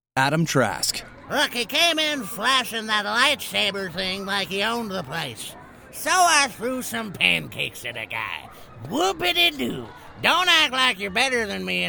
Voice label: Animation; Animation Reel 2019